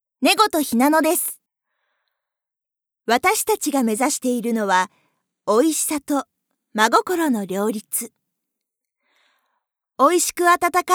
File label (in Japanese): ボイスサンプル ナレーション